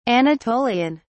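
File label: "anatolian", English, British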